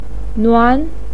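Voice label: "nuan" second tone, Mandarin Chinese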